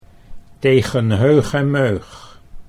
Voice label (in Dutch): tegen heugen meug, Dutch